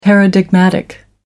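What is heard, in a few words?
"paradigmatic", British English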